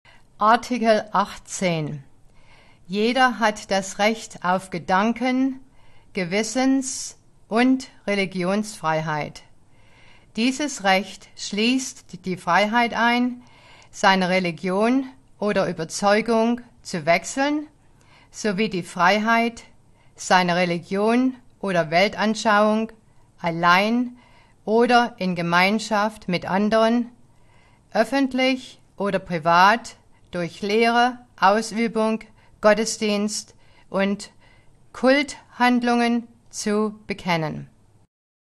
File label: German